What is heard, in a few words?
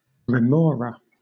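"remora", British English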